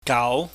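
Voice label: "gau", Cantonese, mid rising tone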